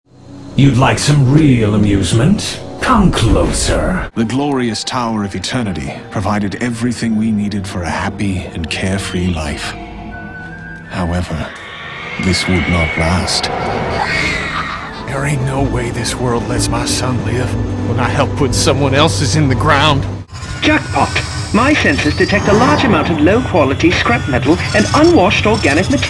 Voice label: animation interactive, voices men